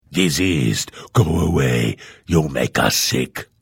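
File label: Hello, Vo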